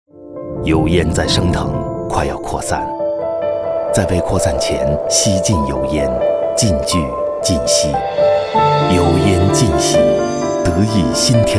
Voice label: MANDARIN Male Adult; Ker Sound Studio Shanghai China